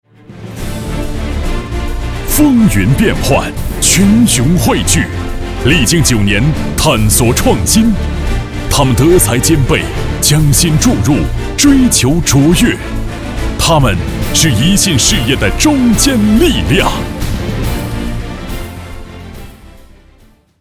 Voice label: 专题 颁奖 人物颁奖, 男国

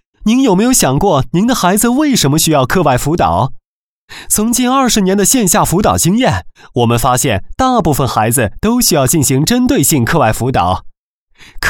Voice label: 多媒体 培训课件 课外辅导, 男国

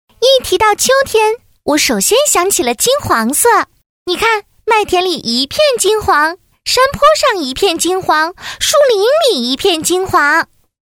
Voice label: 动画 童声 女童雪精灵

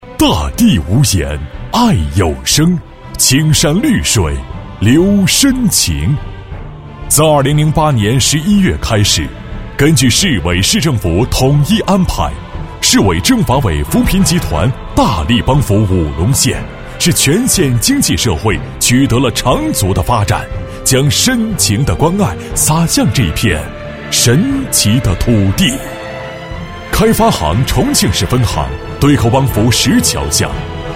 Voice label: 男 专题 浑厚; 扶贫办专题片

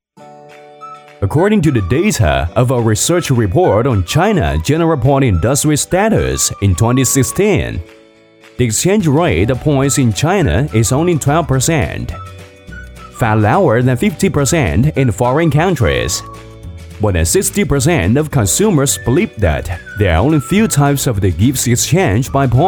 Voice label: 男英 宣传片; 病毒; 英语; 爱之选商城

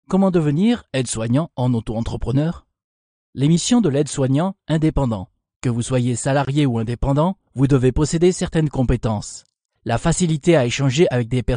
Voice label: 法语男, 外语, 小语种, 年轻

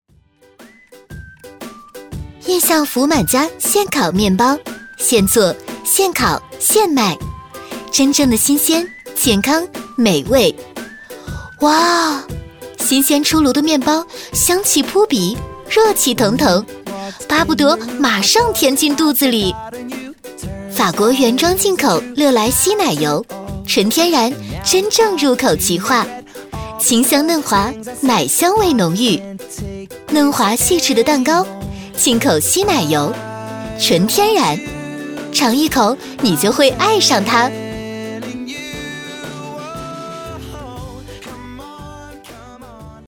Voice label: 广告 食品饮料 现烤面包 欢快

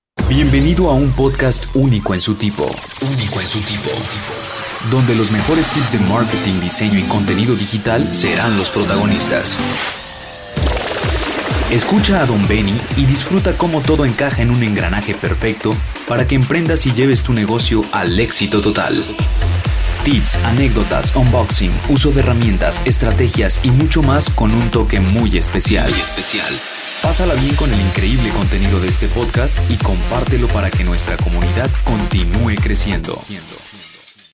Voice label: 西班牙语男 外语 小语种 激情

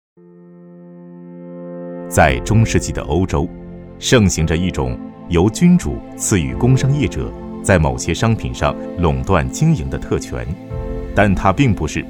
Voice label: 男国 专题 政府 讲述; 知识产权简史

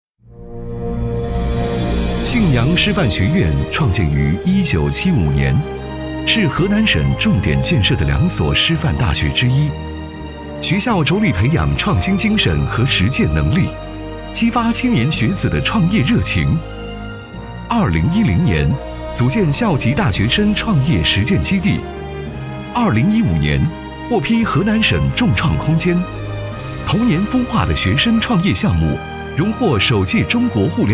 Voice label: 男国 专题 学校 年轻; 信阳师范学院

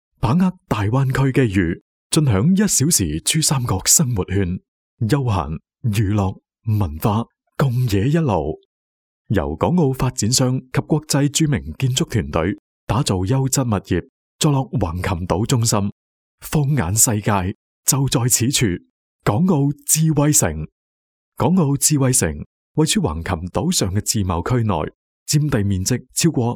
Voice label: 男粤 专题 企业; 粤语; 港澳智慧城

